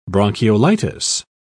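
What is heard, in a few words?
"bronchiolitis", British English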